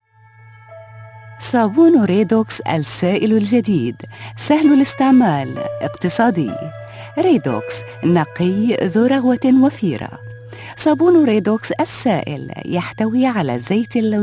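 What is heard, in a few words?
Arabic, Commercial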